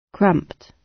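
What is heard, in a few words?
"cramped", British English; Design and innovation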